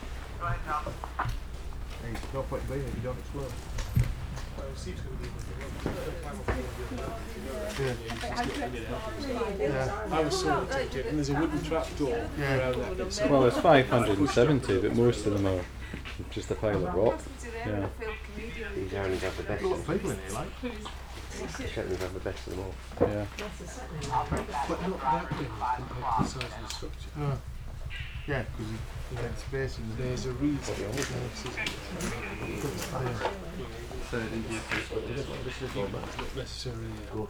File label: voices inside ctyard